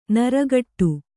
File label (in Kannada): "ನೀರುಗೇಡಿ", Kannada